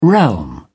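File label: "realm", British English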